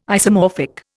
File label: "isomorphic", Indian